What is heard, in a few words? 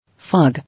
"Fog", English